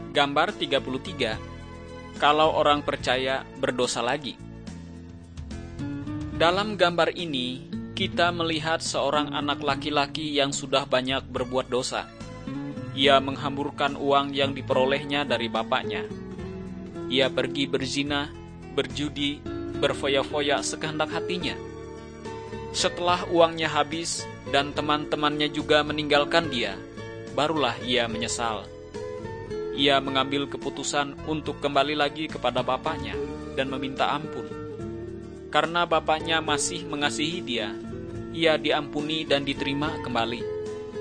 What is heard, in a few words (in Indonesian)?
kabar baik good news bahasa indonesia